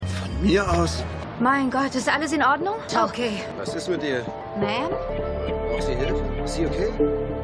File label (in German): Mann Passantin Passant; Jessica Jones